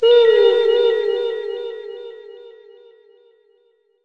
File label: spacey voice call; Robotic or Stuttery or Spooky